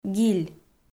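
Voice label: "гиль", Komi, female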